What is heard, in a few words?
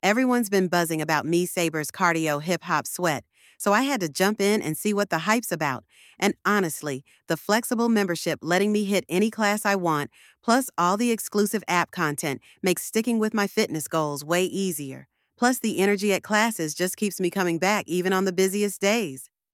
voiceover; Friday Night Cardio Hip Hop Sweat; Rhythm Dance Fitness; Kick off your weekend with Cardio Hip Hop Sweat at RHYTHM Dance & Fitness Studio; Kaylin AI